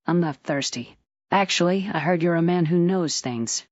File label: fem